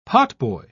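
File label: "potboy", British English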